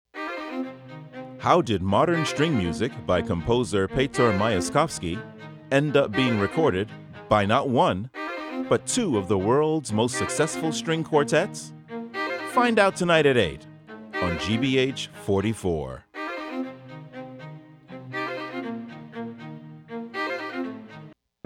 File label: Radio Promo